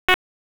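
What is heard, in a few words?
Police Northeast District Dispatch, p25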